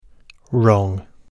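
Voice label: "wrong", English word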